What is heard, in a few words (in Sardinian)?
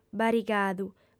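"barigadu", female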